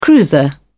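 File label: "cruiser", British English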